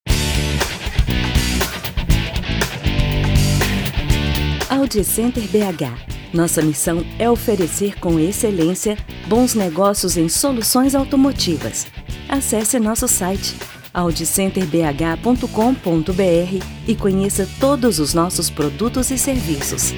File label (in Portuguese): espera neutro; audicenter